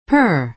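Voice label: "per", American English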